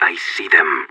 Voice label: radio enemy spotted, separatist; Counter Strike; CS:GO